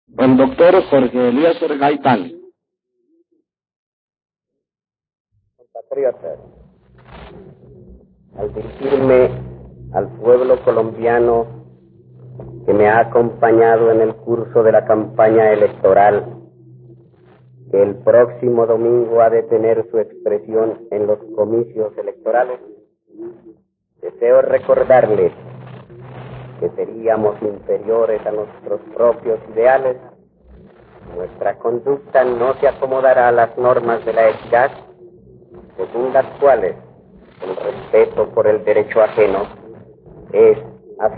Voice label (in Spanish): Alocución con motivo de las elecciones; Gaitán, J. (1946); Caudillos y muchedumbres; Todelar; eleccion 1946 colombia